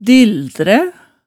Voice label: "dildræ", hemsedalsmaalet tale

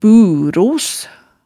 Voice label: "buros", hemsedalsmaalet tale

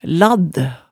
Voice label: "ladd", hemsedalsmaalet tale

